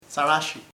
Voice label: slow, chamacoco; lento

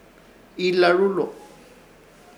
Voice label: "Iilarulo", Ishir Chamacoco; desesperado

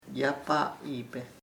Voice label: raw, chamacoco; crudo

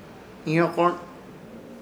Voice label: "Ihyokõrt", Ishir Chamacoco; ruido

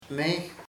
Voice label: this, chamacoco; este

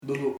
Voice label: burnt, chamacoco; quemado

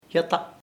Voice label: other, chamacoco

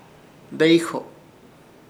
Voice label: "Deyjo", Ishir Chamacoco; hijos

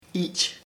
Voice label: name, chamacoco

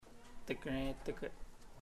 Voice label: I came, chamacoco; Puerto Diana

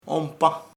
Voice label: beautiful, chamacoco; bello

